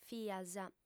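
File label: "fias", Sardinian, female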